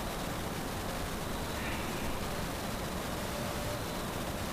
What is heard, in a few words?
male whisper